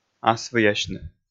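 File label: "асвыясьны", Komi-Zyrian, male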